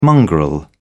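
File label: "mongrel", English, British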